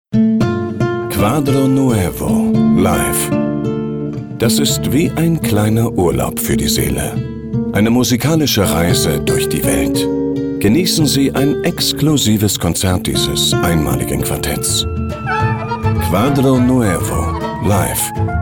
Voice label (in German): Radio